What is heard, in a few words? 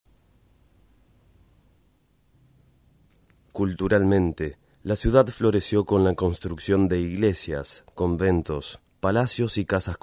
locutor argentina argentinian voiceover